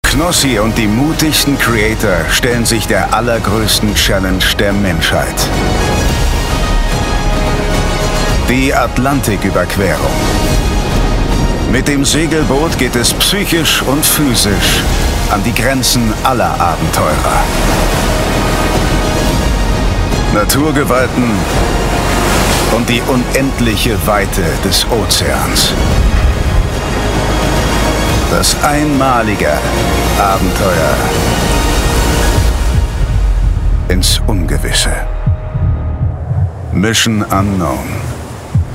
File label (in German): Trailer, German; Mission Unknown